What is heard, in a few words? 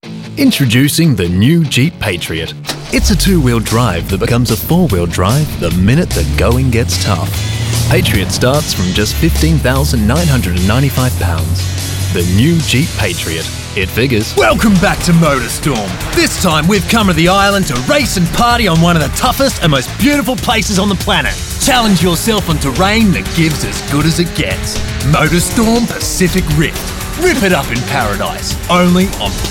english australian commercial